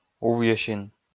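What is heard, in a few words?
"увйӧсин", Komi, male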